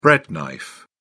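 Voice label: "bread knife", British English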